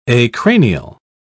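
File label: "acranial", British English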